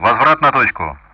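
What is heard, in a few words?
Speech Sound Russian; DCS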